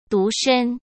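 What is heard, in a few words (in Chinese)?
"独身", Mandarin Chinese; hsk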